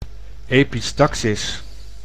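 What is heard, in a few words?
"epistaxis", Dutch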